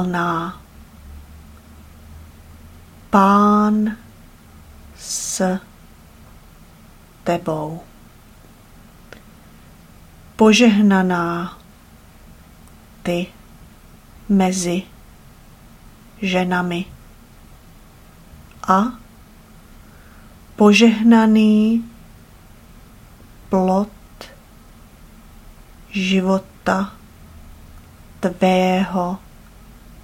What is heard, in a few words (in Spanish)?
ave maria checo pausado; ave maria idiomas